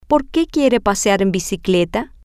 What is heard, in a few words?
Why Does She Want To Go Bike Riding F, spanish